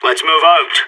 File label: pep talk, radio; SAS